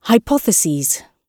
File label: hypotheses, gb